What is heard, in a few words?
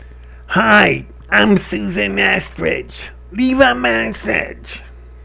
answering machine message